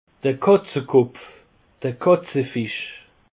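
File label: "katzekopf", Alsatian; peche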